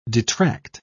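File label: "detract", British English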